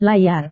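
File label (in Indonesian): "layar", Indonesian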